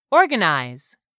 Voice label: "ORGANIZE", English; 3000 words

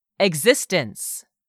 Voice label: "EXISTENCE", english; 3000 words

